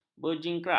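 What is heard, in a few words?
"berjingkrak", Malay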